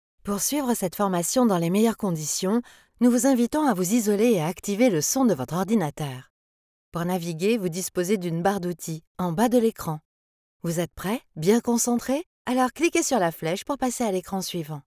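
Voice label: French, female, elearning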